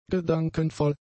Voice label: "gedankenvoll", German; adjectives

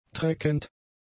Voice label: "treckend", German; adjectives

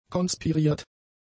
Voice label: "konspiriert", German; adjectives

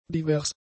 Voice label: "divers", German; adjectives

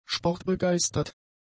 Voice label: "sportbegeistert", German; adjectives

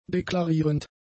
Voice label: "deklarierend", german; adjectives

